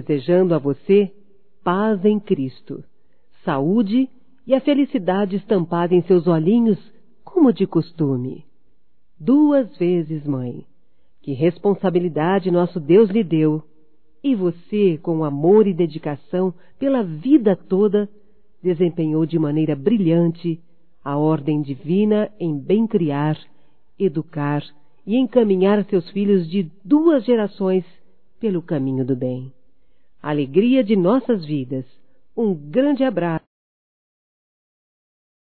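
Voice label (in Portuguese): EVANG ANIVERSÁRIO DE VÓ, Feminino; Aniversarios; Telemensagens; Telemensagens 2017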